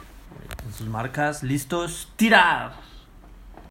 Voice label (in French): espagnol à vos marques prêt lancez